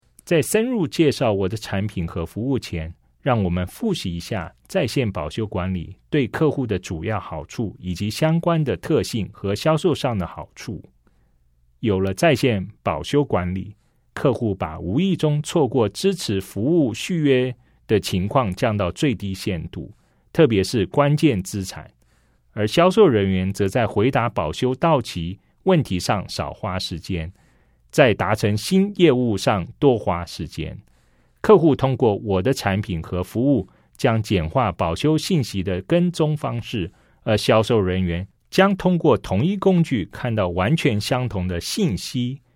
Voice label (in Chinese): CHINESE